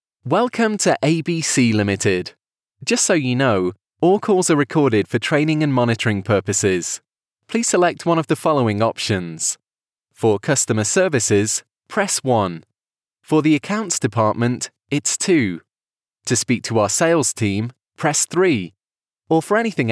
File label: Male Welcome Auto Attendant